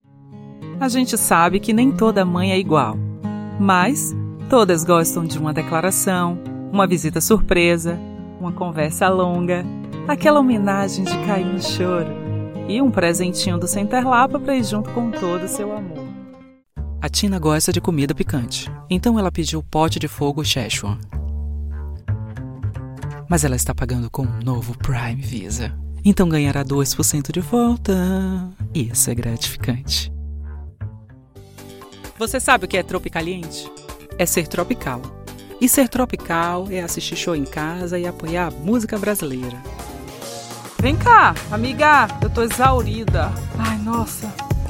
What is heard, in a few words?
portuguese brazilian commercial, profound voice actors